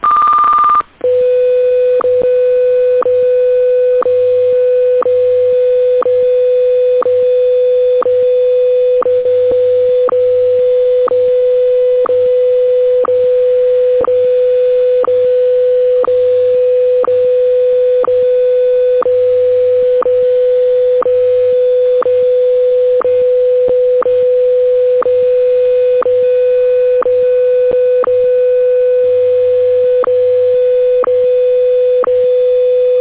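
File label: WWVH Hawaii; WWVH Havai; Zagranica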